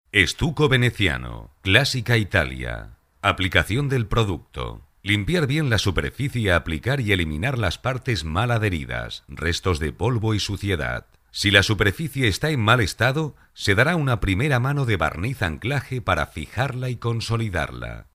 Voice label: documentary